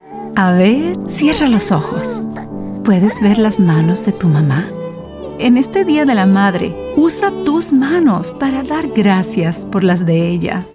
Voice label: Mother's Day Motherly Warm